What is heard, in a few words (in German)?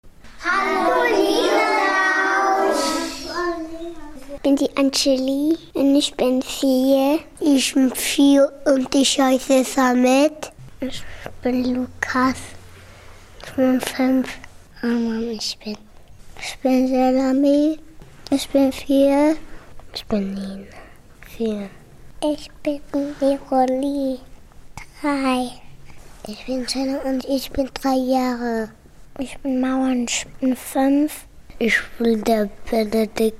Kita Vorstellungsrunde Kinder; LL Kita Engels Weg